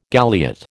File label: "galliot", American